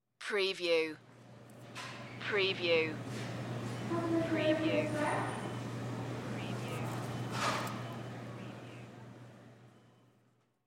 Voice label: Grocery PA